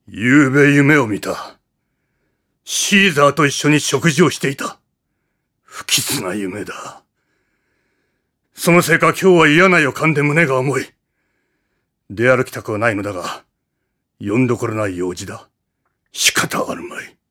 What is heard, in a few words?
male voice; セリフ